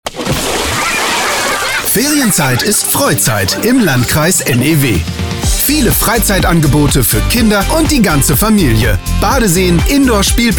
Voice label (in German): Werbung